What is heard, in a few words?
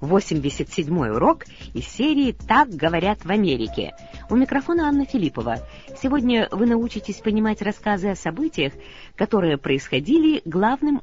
russian english